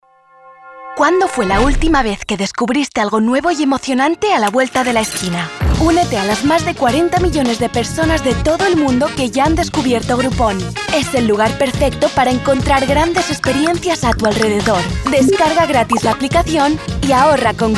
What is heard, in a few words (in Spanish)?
SPA Castilian Optimista Dinamica; Groupon